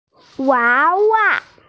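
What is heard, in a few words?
"wawa", Mandarin Chinese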